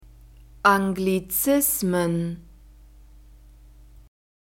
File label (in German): "Anglizismen", German